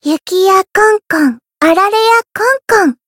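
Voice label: Voice limitbreak, Japanese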